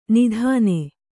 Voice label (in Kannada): "ನಿದಾನ", Kannada